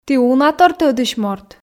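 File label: "ті унатор тӧдысь морт", Komi, female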